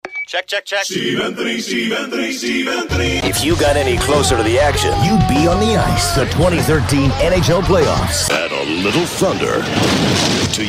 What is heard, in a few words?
Sports Imaging